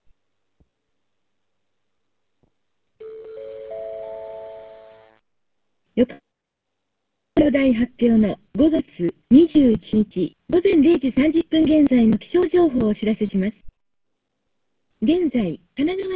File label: gsm 8khz hsdpa